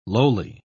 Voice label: "lowly", pronounce